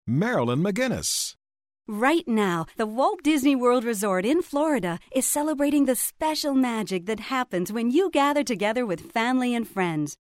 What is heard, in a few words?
Vivacious